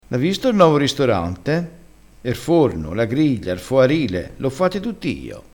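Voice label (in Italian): "foarile", vocabolario dialettale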